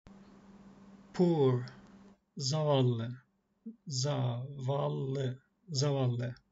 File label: poor, Turkish; the talking turkish phrasebook